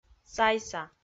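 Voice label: "сайса", Komi, female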